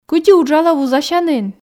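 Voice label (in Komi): кыдзи уджалӧ вузасянін, Komi, female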